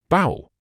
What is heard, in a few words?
"bowel", medical english uk; ˈbaʊ.əl; BOW-uhl